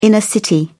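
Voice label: "inner city", British English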